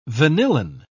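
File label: "vanillin", British English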